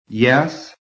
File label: "YES", american